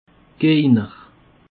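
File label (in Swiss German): "gegner", Alsatian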